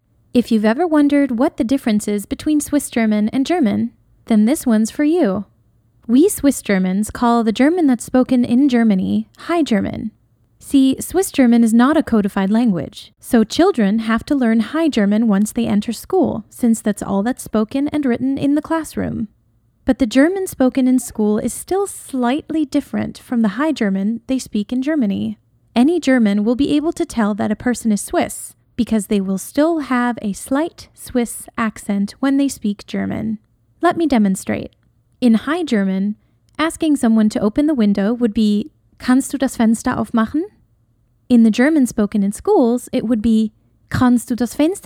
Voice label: Educational ENGLISH US Knowledgable Kind Upbeat

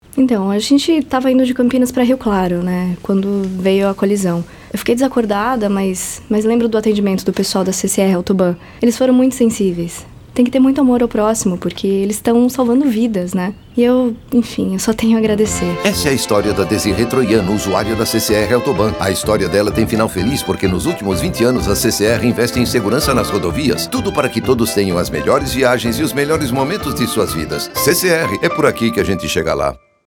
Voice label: Spot; CCR AutoBan

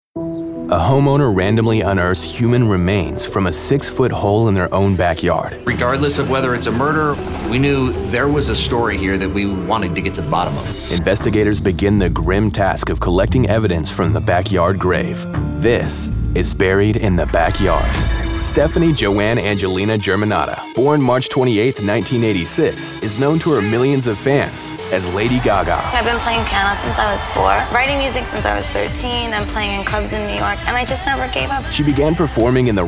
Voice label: TV Narration

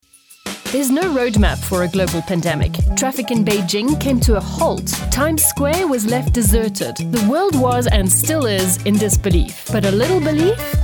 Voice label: South African accent mixed demo

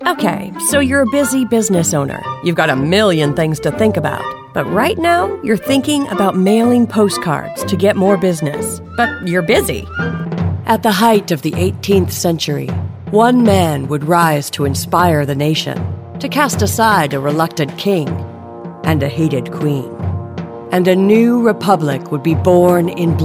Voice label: Narration

